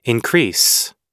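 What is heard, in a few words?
"increase", American English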